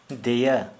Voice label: Santali, back